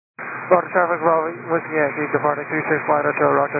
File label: 122 725MHz, scanner radio